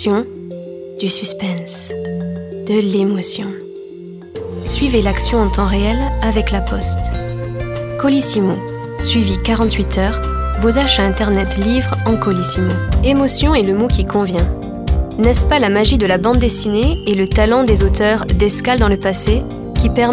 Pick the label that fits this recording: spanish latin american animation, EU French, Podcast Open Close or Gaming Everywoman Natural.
EU French